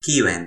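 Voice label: "kiwen", Toki Pona; linku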